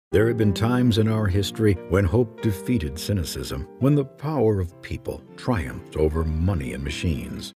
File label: caring concerned political